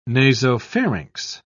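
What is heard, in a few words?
"nasopharynx", British English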